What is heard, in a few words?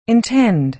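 "intend", American English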